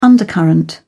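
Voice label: "undercurrent", British English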